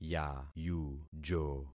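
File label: "yayujo", concatenated syllables; Affixing experiment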